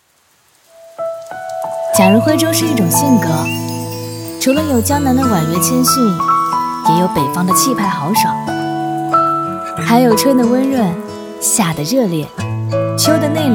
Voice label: 女-文旅-素人文旅风; 女B32-大气质感; 温柔知性

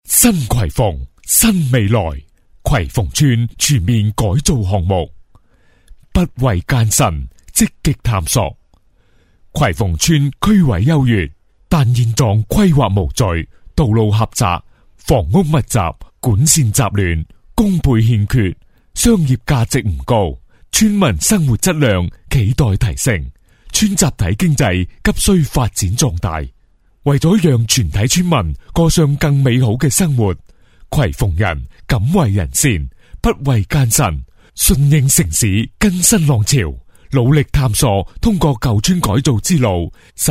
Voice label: 男 粤语配音 大气有力; 葵蓬村全面改造项目

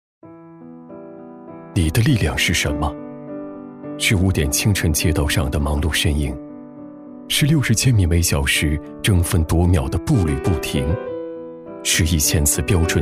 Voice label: 男 内心独白 走心; 厚重有力; 质感磁性

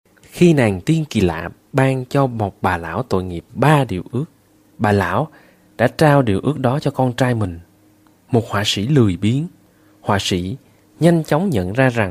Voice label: 越南语男声

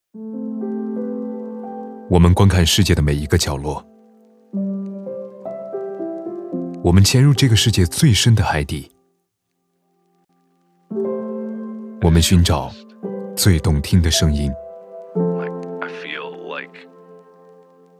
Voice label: 男 独白 年轻磁性; 年轻稳重; 低沉走心

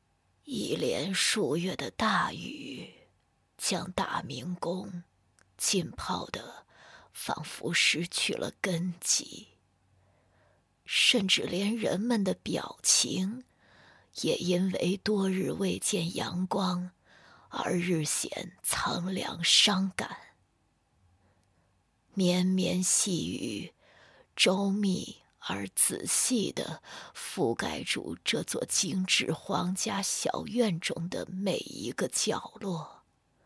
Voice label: 女, 人物角色, 回忆, 老人; 素人自然

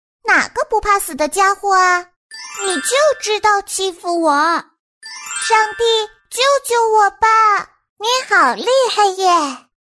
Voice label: 女 游戏语音提示 女童声; 游戏CG

